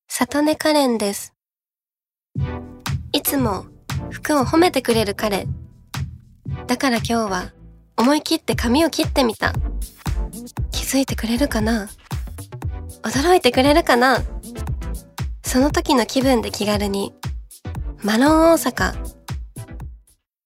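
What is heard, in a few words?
commercial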